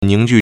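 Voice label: "ning2ju4", Mandarin Chinese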